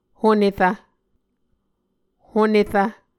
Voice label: "honerv", Muscogee

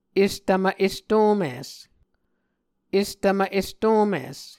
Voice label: "estvmv estomis", Muscogee

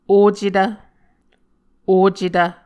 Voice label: "ocetv", Muscogee

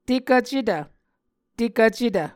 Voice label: "tekvcetv", Muscogee

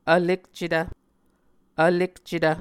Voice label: "vlekcetv", Muscogee

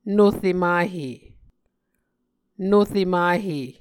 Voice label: "nore mahe", Muscogee

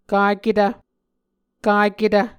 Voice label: "kaketv", Muscogee; A Dictionary of Creek/Muskogee; SIL International; Onvkv Ohhvyayices

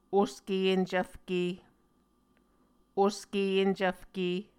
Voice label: "oske encvfke", Muscogee

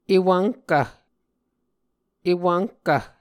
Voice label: "ewvnhkv", muscogee

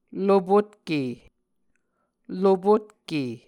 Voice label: "lopotke", Muscogee

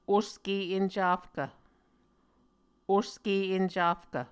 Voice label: "oske encafkv", Muscogee

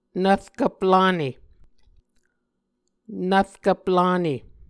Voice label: "nvrkvp lane", Muscogee

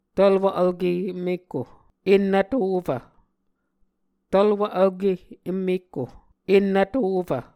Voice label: "tvlwv vlke em mekko ennvthofv", Muscogee

